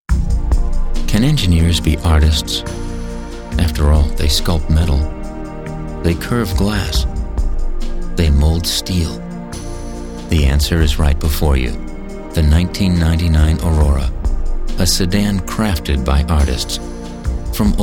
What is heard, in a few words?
Announcer Natural